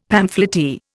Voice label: "pamphleteer", Indian; Dictionary